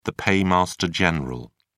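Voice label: "paymaster general", British English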